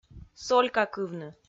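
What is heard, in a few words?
"солькакывны", Komi, female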